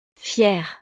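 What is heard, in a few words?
"fiere", French; estados animo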